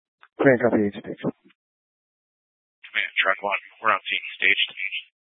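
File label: Incident Tac 1, Scanner audio recording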